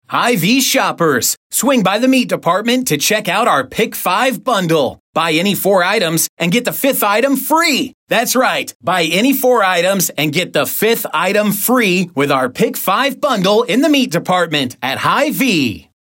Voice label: Promo; Hy-Vee; Vibenomics